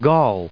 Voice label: "gaul", us